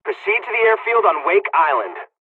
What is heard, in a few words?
fly, dialogs hungarian; War Thunder